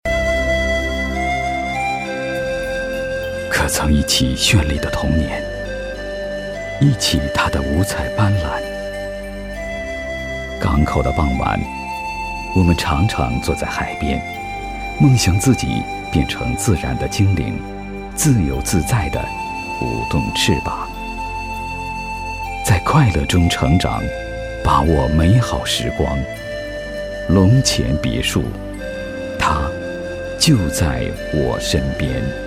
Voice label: 男 广告 别墅

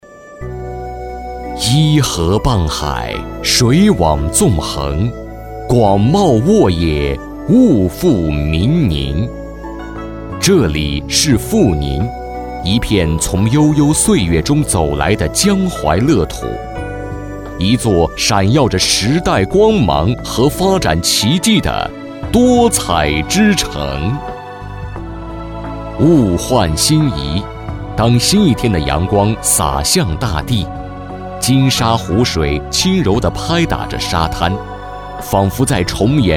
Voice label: 男 专题 旅游 感情 沉稳; 阜宁旅游

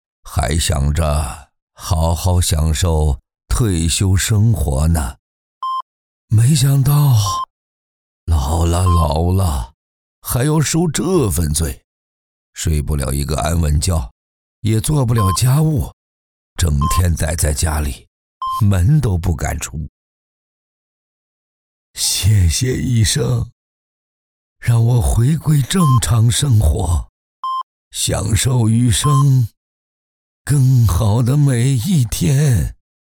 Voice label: 男 角色 患者老年

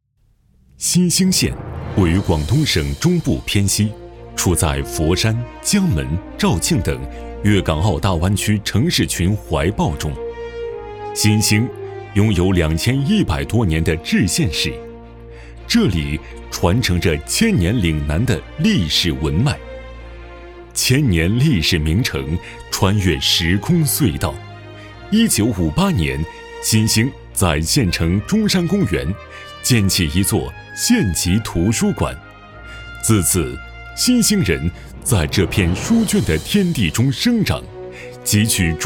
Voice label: 男 专题片 政府 大气

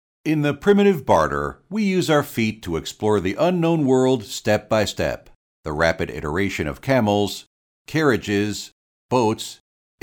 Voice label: 中英文; 四川省外贸集团有限公司

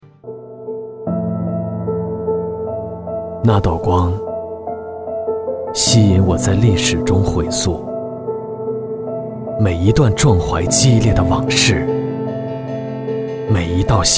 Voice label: 男, 独白; 那道光

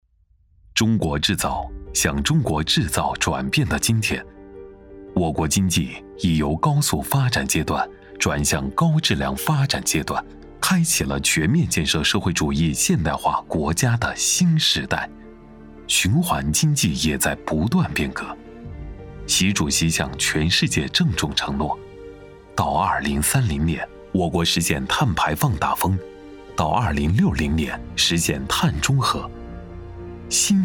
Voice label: 男 大气震撼宣传片

